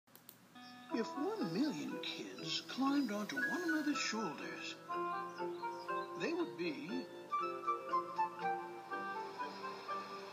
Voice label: Audiobook Excerpt